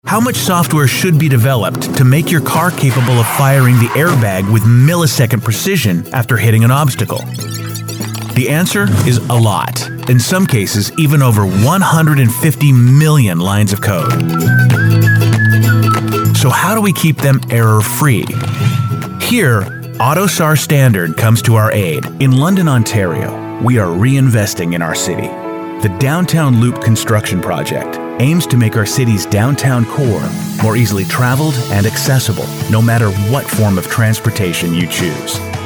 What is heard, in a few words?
explainer